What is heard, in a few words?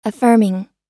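"affirming", US English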